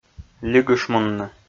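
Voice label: "лигышмунны", Komi, male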